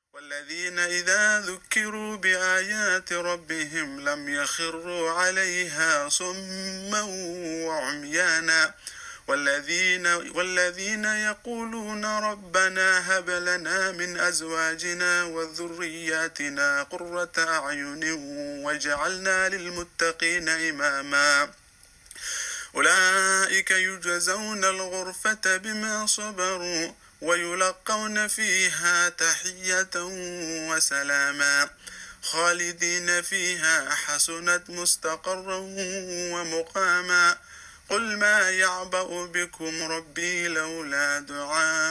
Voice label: Ramadan Quranic Recitation; COVID; Islamic Society Greater Lansing